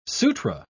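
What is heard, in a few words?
"sutra", British English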